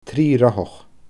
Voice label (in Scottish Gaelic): "trii rothach", Scottish Gaelic; three-wheeled